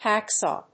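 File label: "hacksaw", English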